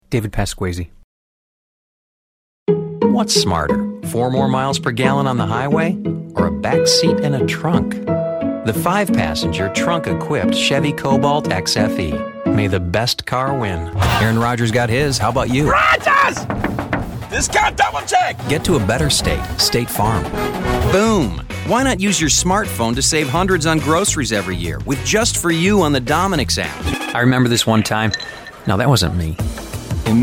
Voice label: commercial; Innovative LA